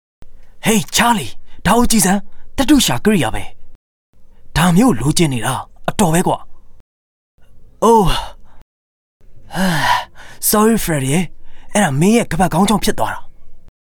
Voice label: Burmese Male Sample